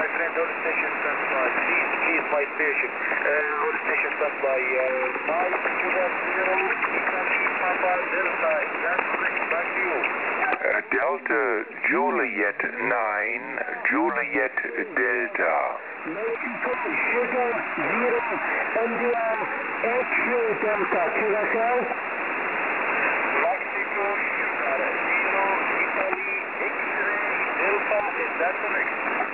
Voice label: qso; 14264